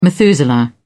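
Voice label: "methuselah", English, British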